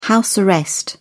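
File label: "house arrest", British English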